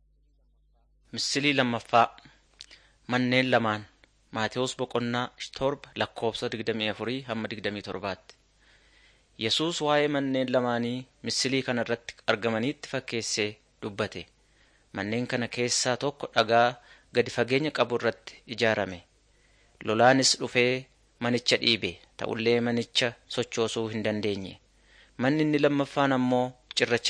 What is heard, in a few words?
oromo wellega; jesus teacher and healer short